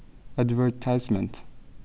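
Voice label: "advertisement", Yemen, male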